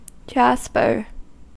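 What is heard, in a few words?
"jasper", US English; pronunciations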